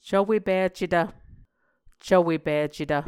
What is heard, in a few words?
"cvwepicetv", muscogee; Mvskoke Words with Voicing Consonants; A Dictionary of Creek/Muskogee; SIL International; Onvkv Ohhvyayices